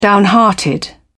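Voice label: "downhearted", British English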